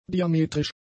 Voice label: "diametrisch", german; adjectives

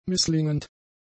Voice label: "misslingend", German; adjectives

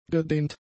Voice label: "gedehnt", German; adjectives

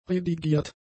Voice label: "redigiert", German; adjectives

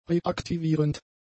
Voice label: "reaktivierend", German; adjectives

